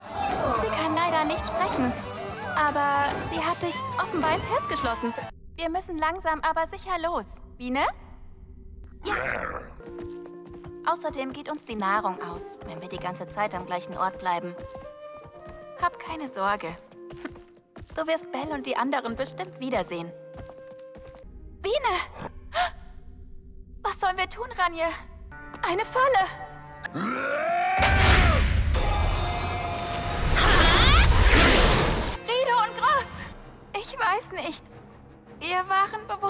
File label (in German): Synchron Anime, junge dyna